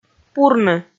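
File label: "пурны", Komi, female; kpv audio dict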